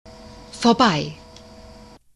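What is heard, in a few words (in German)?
"vorbei" German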